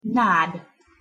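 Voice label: "nad", Hungarian pronunciation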